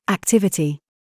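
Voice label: TRAP, words, British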